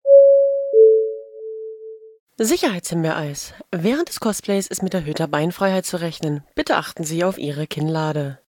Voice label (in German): durchsage